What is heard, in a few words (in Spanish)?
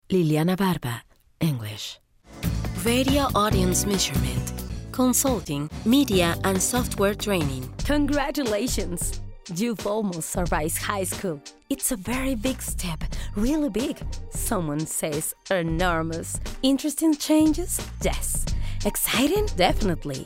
INGLES